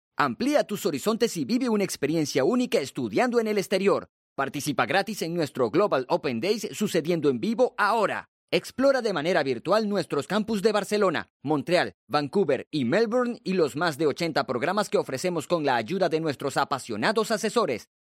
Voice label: locutor espanol neutro spanish american voiceover; Locutores latinoamericanos